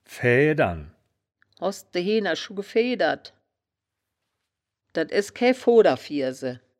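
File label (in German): "feedern", wittlicher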